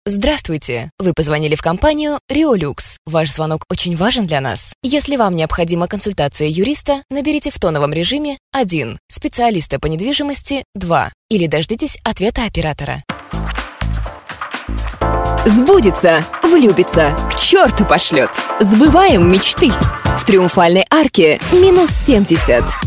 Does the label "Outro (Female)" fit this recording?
No